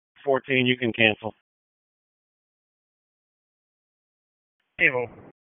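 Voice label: Dispatch 1, P25; WSO DISP 1; wake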